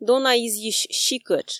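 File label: "дона изйысь сикӧтш", Komi, female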